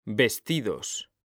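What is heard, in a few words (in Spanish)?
"VESTIDOS", Spanish, male; mekanta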